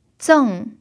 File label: "zeng4", Mandarin pinyin